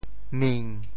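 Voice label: "ming7", Teochew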